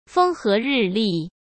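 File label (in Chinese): "风和日丽", Mandarin Chinese; hsk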